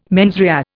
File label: "mens rea", Indian